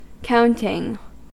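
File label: "counting", American English; pronunciations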